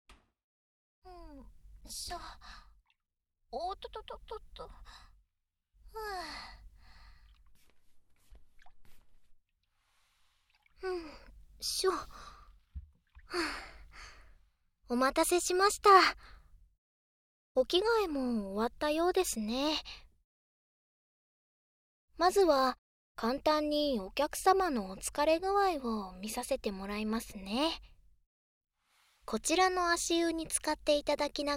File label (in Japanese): 足湯でマッサージ（ノイズ除去なし）; 耳かきヒーリング; ダミーヘッド&ダミーボディマイク使用